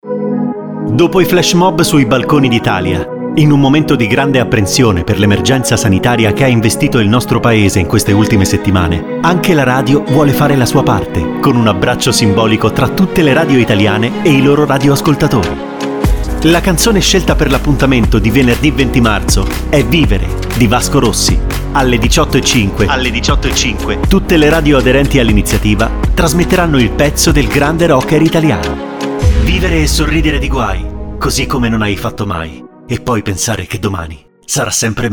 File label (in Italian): spot; LITALIA SUONO